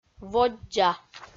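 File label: "воддза", Komi, female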